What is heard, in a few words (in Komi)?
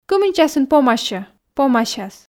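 кымын часын помасьӧ помасяс, Komi, female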